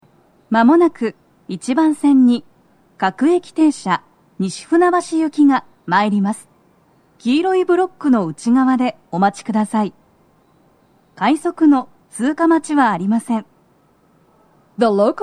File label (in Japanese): 接近放送, 女声; 1番線 西船橋・東葉勝田台・津田沼方面; 放送は「各駅停車 西船橋行」です。快速 の通過待ちはありません; 東西線; BOSEでの収録です。